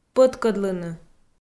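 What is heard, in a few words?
"пӧткӧдлыны", Komi, female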